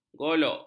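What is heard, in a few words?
"golok", Malay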